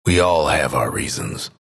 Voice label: announcer disconnect disconnected player; dlc bastion